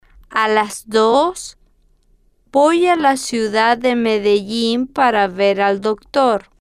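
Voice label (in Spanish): a Las Dos Voy A La Ciudad De Medellin Para Ver Al Doctor, Spanish, female, slow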